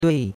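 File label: "dui4", Chinese; Cours de Chinois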